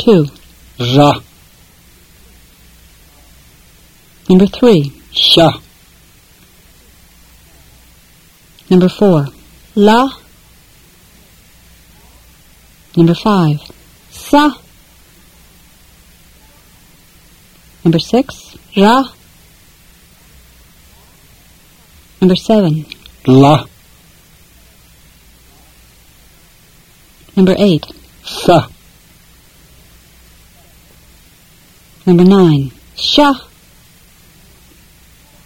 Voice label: Fluent Tibetan drill